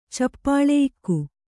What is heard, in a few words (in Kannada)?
"ಚಪ್ಪಳೆಯಿಕ್ಕು", kannada